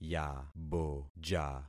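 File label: "yaboja", concatenated syllables; Affixing experiment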